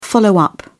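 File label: "follow-up", British English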